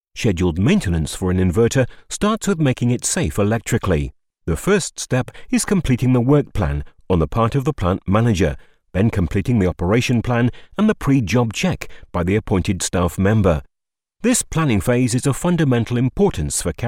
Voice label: inglese uk

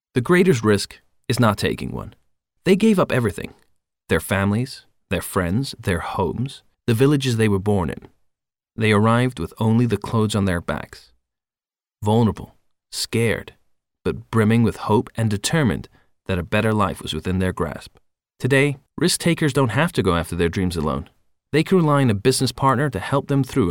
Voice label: inglese usa

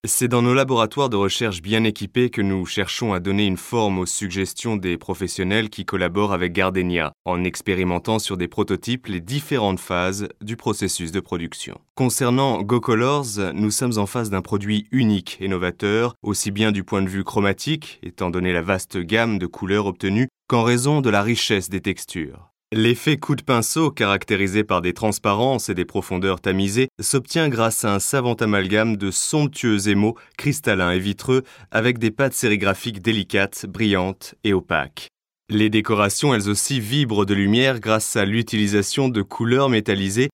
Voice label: francese

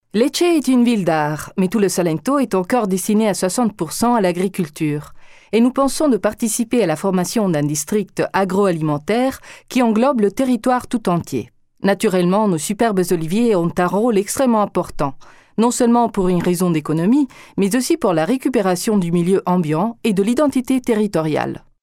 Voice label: francese

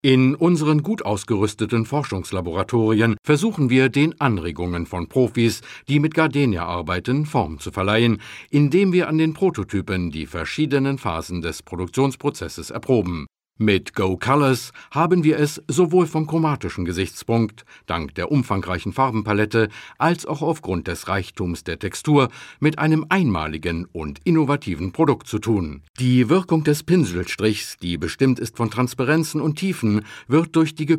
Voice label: tedesco

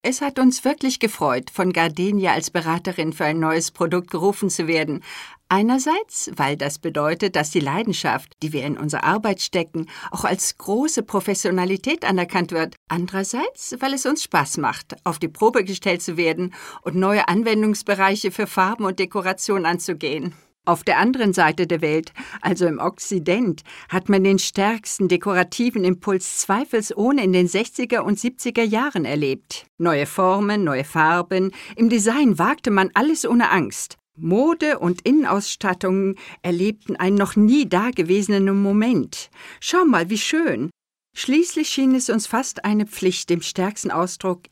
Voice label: tedesco

